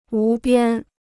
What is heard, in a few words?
"无边", Chinese